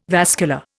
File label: "vascular", Indian